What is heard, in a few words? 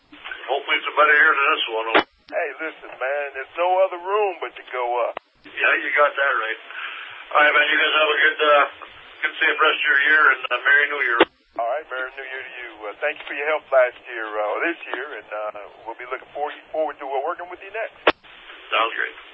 Blabbering